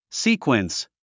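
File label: "sequence", English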